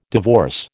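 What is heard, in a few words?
"divorce", American English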